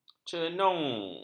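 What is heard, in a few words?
"cenung", Malay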